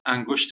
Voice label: "angusht", Persian